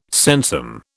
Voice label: "sensum", British